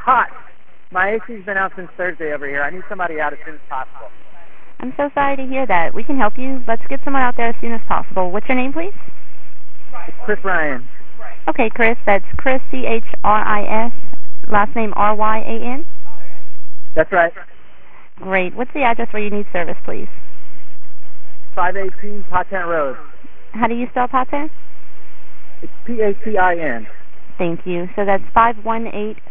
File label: HVAC sample call